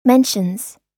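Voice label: "mentions", American English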